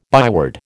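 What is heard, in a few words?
"byword", American English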